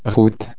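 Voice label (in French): "route", French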